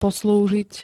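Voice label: "poslúžiť", Slovak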